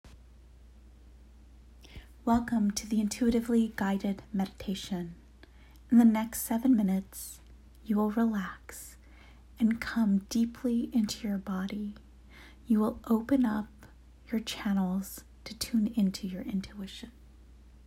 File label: Meditation